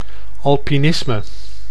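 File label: "alpinisme", Dutch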